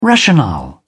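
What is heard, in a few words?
"rationale", British English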